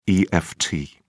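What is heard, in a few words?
"eft", British English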